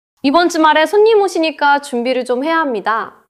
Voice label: neutral, monologue